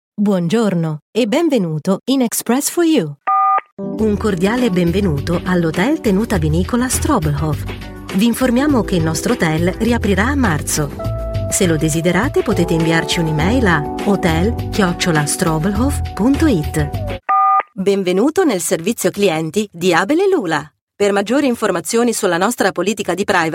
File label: italian voice telephone